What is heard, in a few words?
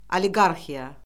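"oliqarxiya", Azerbaijani; Orfoqrafiya Lüğəti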